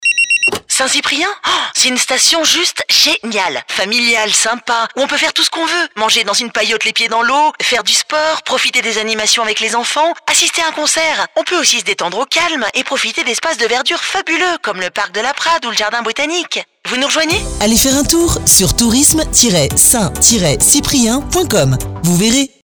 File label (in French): Pub Radio Jouée; RTL2 PERPIGNAN SAINT CYPRIEN